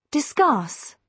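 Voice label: "discuss", British English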